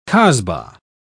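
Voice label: "casbah", British English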